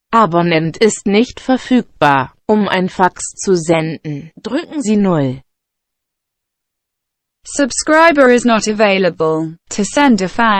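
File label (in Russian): Немецкий английский французский; французкий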